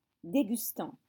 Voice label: "dégustant", French